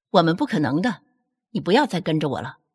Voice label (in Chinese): 冷峻